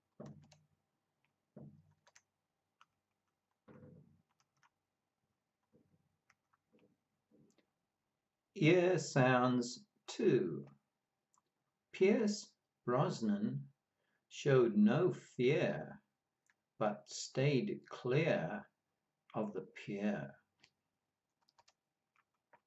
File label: Ear sounds, pronunciation of australian english